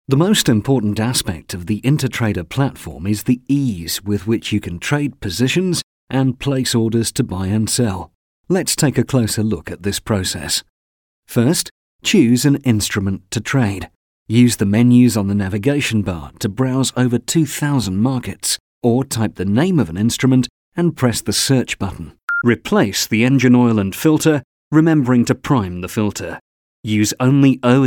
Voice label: 30s Male British eLearning